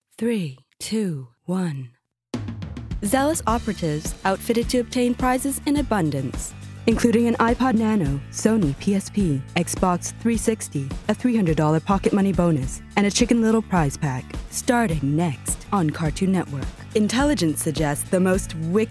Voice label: American